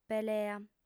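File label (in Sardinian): "pelea", female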